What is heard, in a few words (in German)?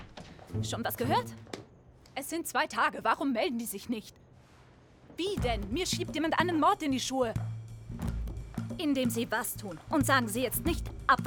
Synchron; Jane the Virgin